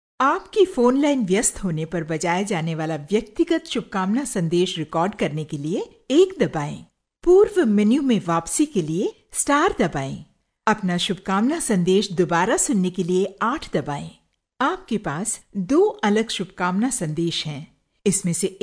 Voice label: Hindi Female